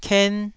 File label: "ken1", taiwanese syllables